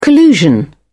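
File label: "collusion", British English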